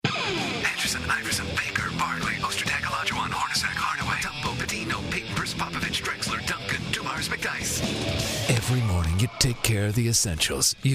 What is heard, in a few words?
sports radio